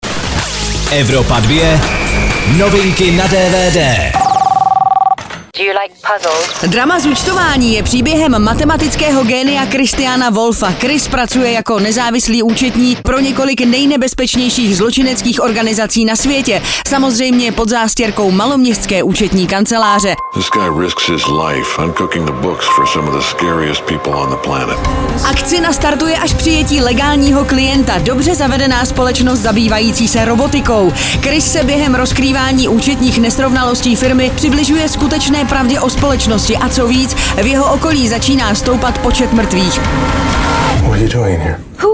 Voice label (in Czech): dvd news; evropa2; zuctovani